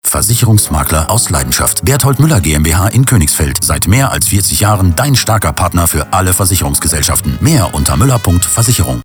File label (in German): radiospot; bm gmbh